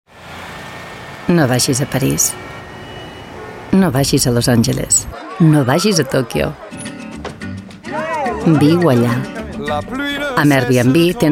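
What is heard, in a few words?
Catalan Commercial; GoLocalise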